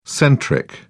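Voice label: "centric", British English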